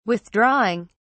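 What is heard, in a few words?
"withdrawing", English, British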